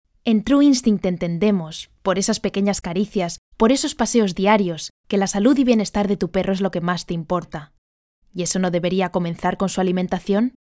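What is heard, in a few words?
Spanish, female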